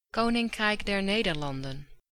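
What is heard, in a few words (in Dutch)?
"Koninkrijk der Nederlanden", Dutch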